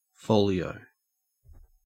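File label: "folio" Australian English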